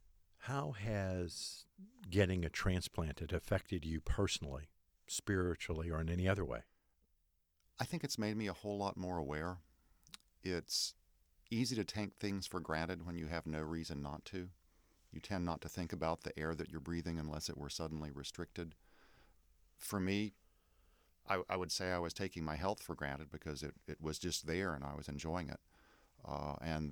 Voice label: podcast